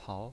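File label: "pao" second tone, pinyin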